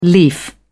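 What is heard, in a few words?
"leaf", English, British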